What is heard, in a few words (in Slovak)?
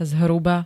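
"zhruba", Slovak; čiastočne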